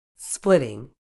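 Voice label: "splitting", British English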